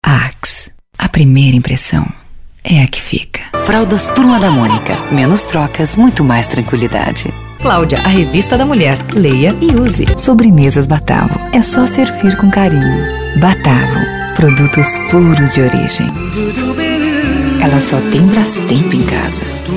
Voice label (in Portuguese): locutora publicitária; Criativa Áudio Produtora; Spot Institucional, Promocional, Humorístico, Educativo e Político